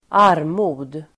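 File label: "armod", Swedish; Uttal: [²'ar:mo:d]; destitution, poverty; svår fattigdom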